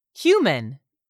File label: "human", English; 3000 words